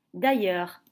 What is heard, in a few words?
"Dailleurs", French